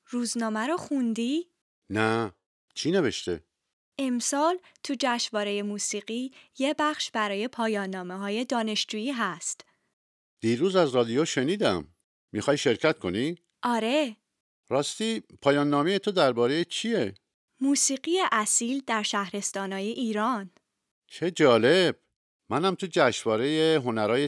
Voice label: Dialogue lesson Farsi